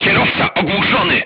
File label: voice message driver contused, crew tanks polish